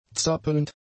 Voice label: "zappelnd", German; adjectives